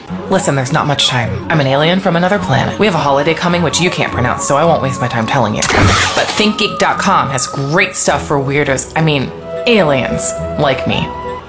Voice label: English Voice Over